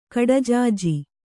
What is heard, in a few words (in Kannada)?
"ಕಡಜಾಜಿ", Kannada